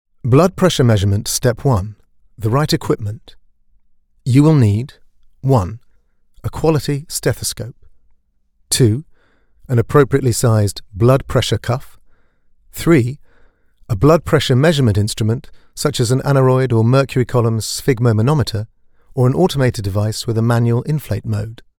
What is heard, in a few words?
Narration